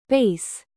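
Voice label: "present", noun, British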